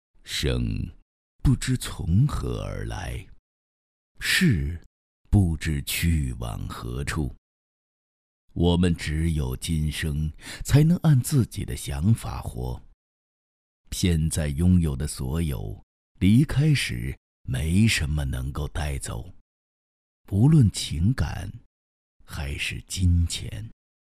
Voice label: 男 诗歌散文类; 燃灯境

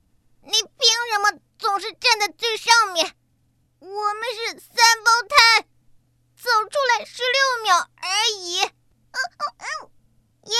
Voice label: 女 角色 机灵活泼萝莉; 费洛普西

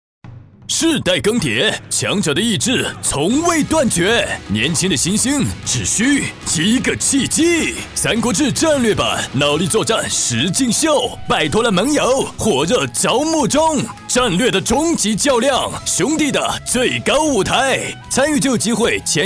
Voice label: 男 台湾腔; 三国志招募盟友

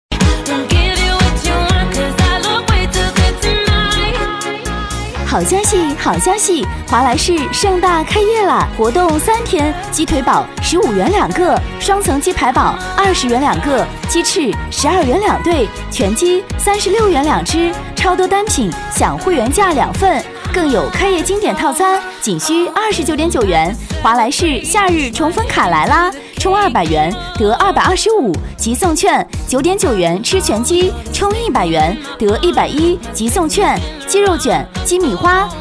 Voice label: 女,激情促销; 华莱士

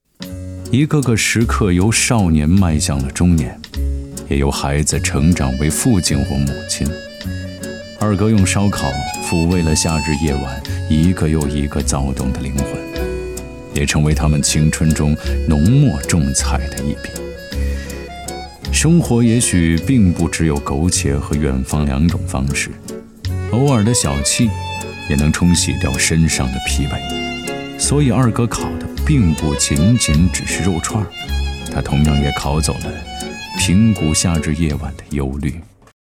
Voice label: 男 纪录片; 人生一串

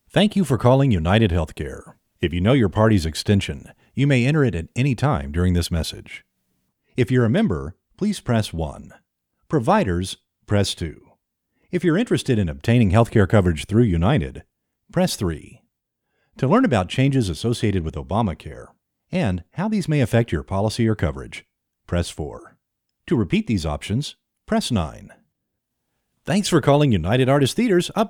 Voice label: 外籍男--voicemail-电话应答系统

